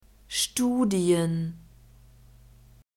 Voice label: "studien", German

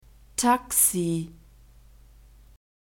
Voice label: "taxi", German

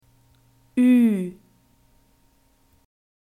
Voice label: "ü", German alphabet

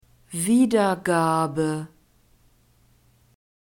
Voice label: "wiedergabe", German

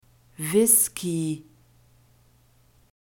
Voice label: "whiskey", German

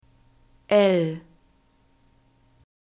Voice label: "l", German alphabet

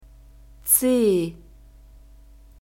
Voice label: "c", German alphabet

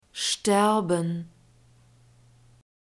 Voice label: "sterben", German; verbs present tense irregular

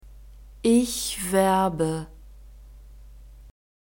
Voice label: ich werbe, German; verbs present tense irregular

